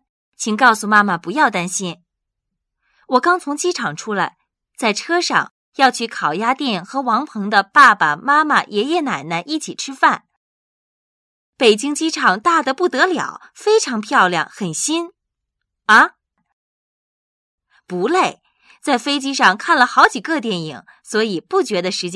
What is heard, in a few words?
narrative